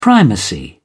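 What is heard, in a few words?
"primacy", British English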